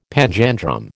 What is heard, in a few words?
"panjandrum", American